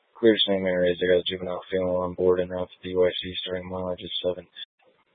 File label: Police Dispatch, scanner; Police 1 Dispatch